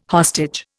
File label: "hostage", Indian